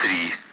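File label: Speech Sound Russian Common Allied Flight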